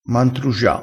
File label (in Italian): "mantrugia", crespinese